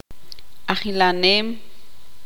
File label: tzutujil; contar 1 2 3